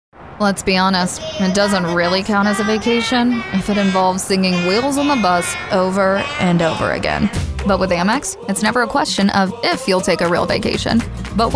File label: Commercial